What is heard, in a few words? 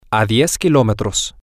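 Spanish, male; 10 Kilometers Away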